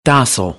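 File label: "docile", American English; AmericanBritish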